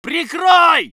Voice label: voice sov infantry